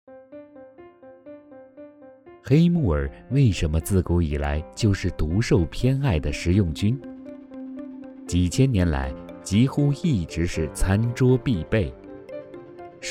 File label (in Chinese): 纪录片黑木耳